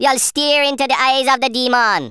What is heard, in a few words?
"you'll regret that", Rasta